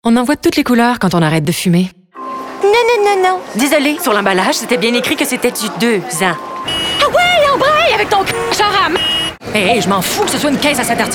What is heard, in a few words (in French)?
demo voix